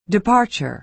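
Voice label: "departure", American English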